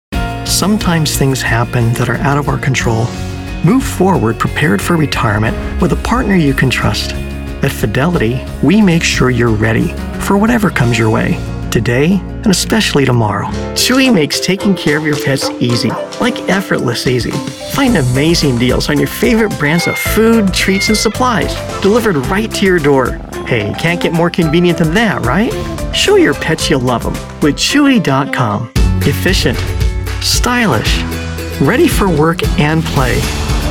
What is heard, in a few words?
Commercials